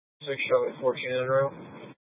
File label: Mesa PD Dispatch; Fiesta